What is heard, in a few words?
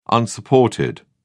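"unsupported", British English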